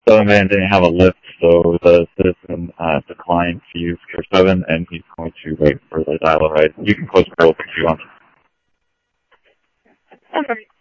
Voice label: Tempe Police, scanner audio